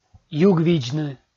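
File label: "югвидзны", Komi, male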